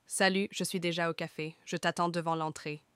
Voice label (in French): France Message d'un ami